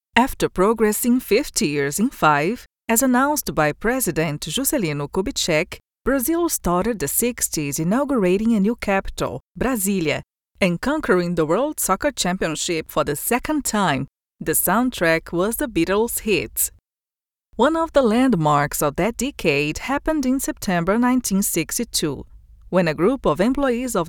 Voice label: en with br accent narration